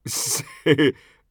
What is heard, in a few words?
scav mutter, voice; Escape from Tarkov; scav5